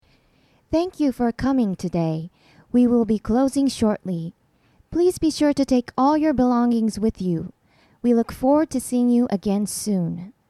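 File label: english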